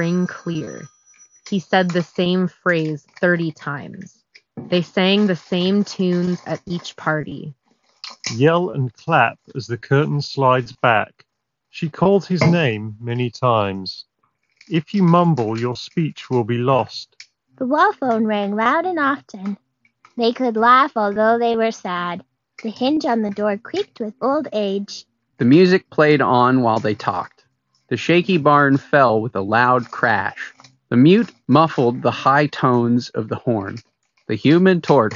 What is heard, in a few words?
Sony WH-CH720N Office microphone sample